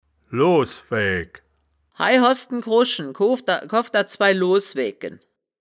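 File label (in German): "loswaeaegk", Wittlicher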